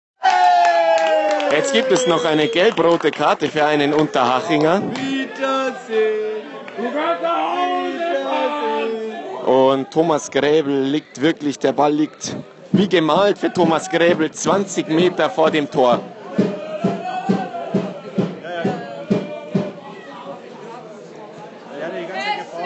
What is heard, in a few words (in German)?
live kommentar, freistoss sieg und aufstiegstor